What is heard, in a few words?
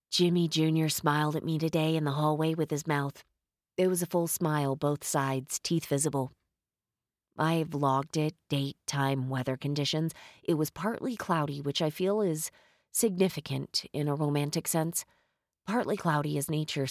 Flat, sincere monotone